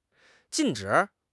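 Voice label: "jin4 zhi3", Mandarin Chinese; HSK; HSK4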